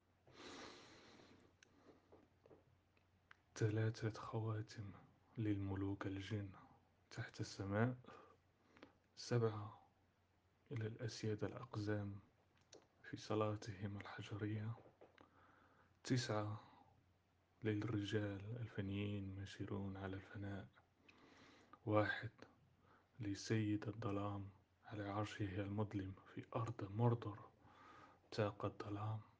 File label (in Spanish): Poema anillo Arabe marroqui